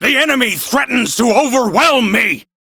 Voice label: Crew Near Death, Male; Warframe; Railjack Crew; Arbiters Hexis